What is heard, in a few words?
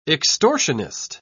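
"extortionist", British English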